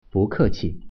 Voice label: thanks reply, Mandarin